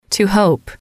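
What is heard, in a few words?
"to hope", English, female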